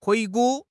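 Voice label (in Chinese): "回顾", Chinese; HSK6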